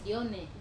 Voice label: "one", Wamesa